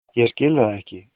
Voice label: no understand, icelandic